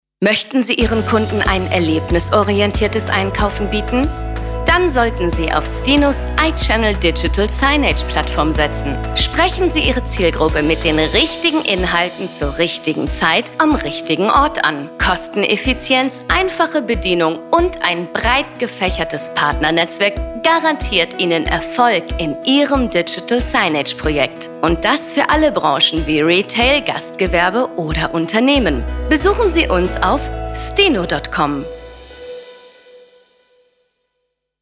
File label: Commercial German